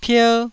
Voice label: "pier1", taiwanese

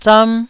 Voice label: "zam1", Taiwanese

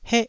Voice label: "heh1", taiwanese

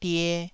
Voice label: "die2", taiwanese syllable

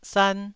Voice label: "san1", taiwanese

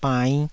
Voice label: "bainn5", taiwanese syllables; 台語音節發音檔案

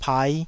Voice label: "pai5", Taiwanese

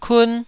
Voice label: "kun1", Taiwanese syllable

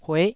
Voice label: "hueh9", taiwanese

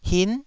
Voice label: "hin9", Taiwanese syllable

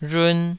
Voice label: "rhun2", taiwanese

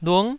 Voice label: "lung9", Taiwanese syllable

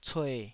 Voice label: "cue3", Taiwanese syllable

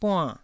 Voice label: "buann4", taiwanese

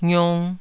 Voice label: "ngiong2", taiwanese syllables

